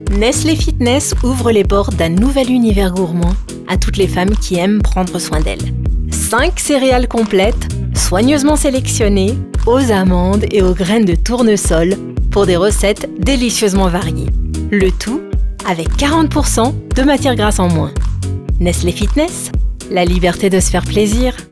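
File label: French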